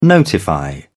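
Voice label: "notify", British English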